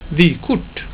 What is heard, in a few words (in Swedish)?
"vykort", Swedish